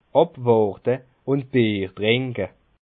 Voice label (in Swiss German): "abwarte", Bas Rhin